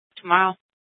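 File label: police department Dispatch, scanner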